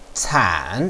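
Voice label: "can3", Mandarin Chinese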